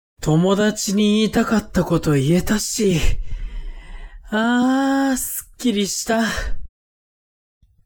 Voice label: man